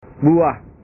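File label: "buah", Indonesian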